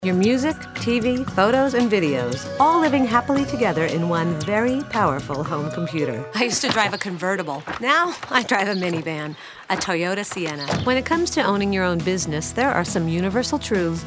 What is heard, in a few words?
VO DEMOS - Women